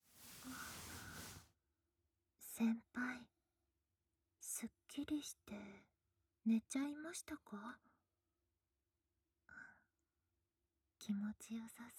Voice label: 添い寝, ASMR; 後輩と添い寝

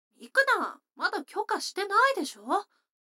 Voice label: 女の子 台詞

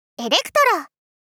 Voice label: "エレクトロ!", 少女×中音域

